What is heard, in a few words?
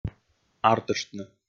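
"артыштны", Komi, male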